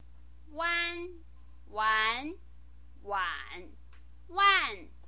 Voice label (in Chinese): "uan", pinyin